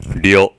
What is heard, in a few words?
"ryo", hiragana